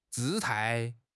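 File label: "姿态", Chinese; HSK6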